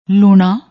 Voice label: "loona", Punjabi

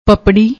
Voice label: "paprri", Punjabi

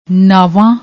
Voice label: "naavan", Punjabi

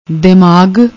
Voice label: "dimag", Punjabi; ਦਿਮਾਗ

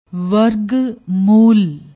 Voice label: "wergmool", Punjabi

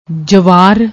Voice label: "jwaar", Punjabi

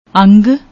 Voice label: "aung", Punjabi

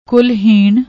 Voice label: "kul heen", Punjabi

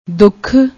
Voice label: "dukhh", Punjabi; ਦੁੱਖ

